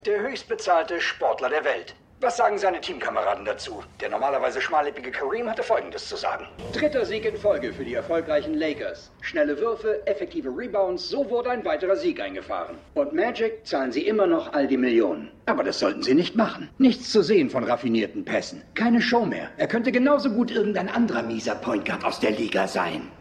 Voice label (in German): Sportmoderator; Winning Time